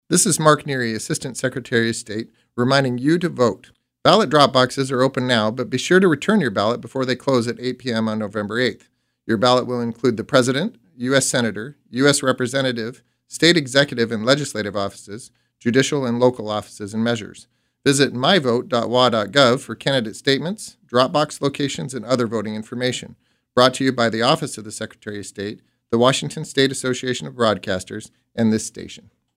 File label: vote deadline english